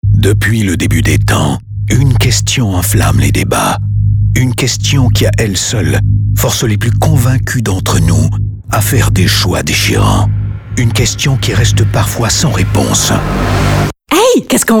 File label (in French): Publicite, Casino de Montreal